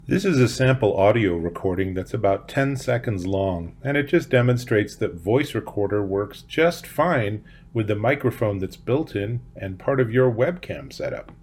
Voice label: sample audio from voice recorder